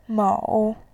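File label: "mou", Mandarin, tone 3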